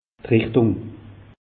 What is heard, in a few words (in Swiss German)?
"richtung", alsace; meteo